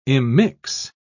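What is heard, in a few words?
"immix", British English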